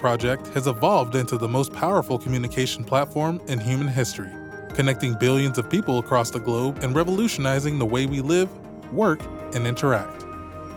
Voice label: Internet Documentary Sample Wmusic